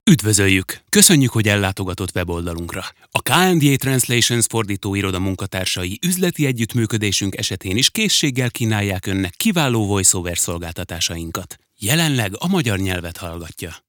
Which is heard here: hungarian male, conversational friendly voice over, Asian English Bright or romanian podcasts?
hungarian male